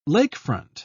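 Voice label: "lakefront", British English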